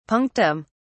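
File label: "punctum", British English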